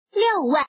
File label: woman; mahjong ningxia